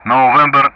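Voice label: Speech Sound Russian Common Player Alphabet; DCS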